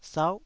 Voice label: "sau9", taiwanese syllable